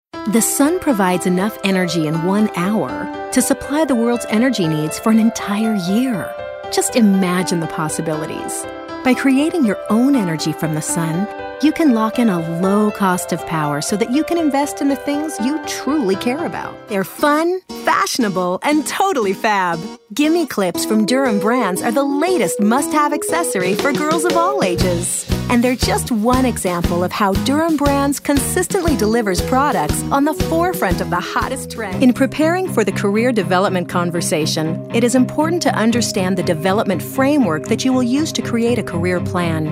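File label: narration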